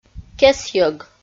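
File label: "кесӧг", Komi, female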